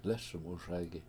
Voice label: "lES1muSay7gi", washo; Im Always Singing

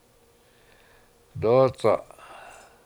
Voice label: "dOOc7a7", Washo; Balsam Root

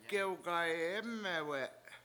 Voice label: sweep it outside, Washo; gewgayEmewe7

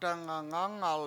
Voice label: "dangangAngal", Washo; houses

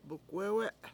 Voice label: "bukwEwe7" board pole, Washo

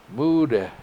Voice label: "mUUde", washo; Brown Bear

